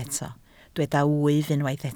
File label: "wyf", Welsh